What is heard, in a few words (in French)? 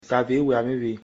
"soixante-dix-huit", fongbe; nombres